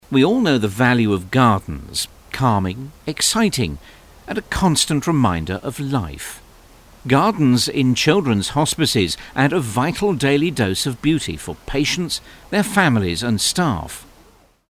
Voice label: documentary